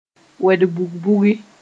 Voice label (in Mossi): "wed-bug-bugi", moore